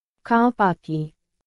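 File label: Myanmar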